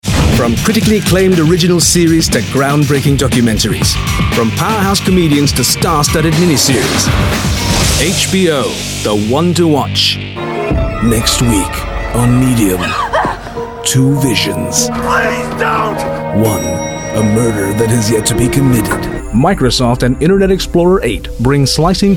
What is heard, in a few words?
Eng, english males